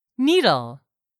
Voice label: "needle", English; 3000 words

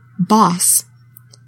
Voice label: "BOSS", english; 3000 words

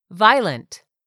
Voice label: "VIOLENT", English; 3000 words

